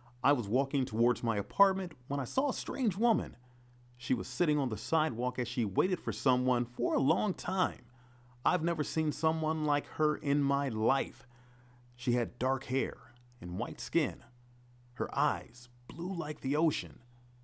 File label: Audiobook Audition; Strange Woman